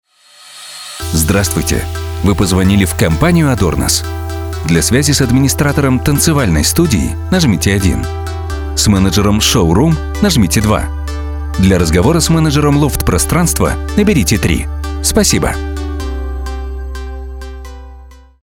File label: IVR; Адорнос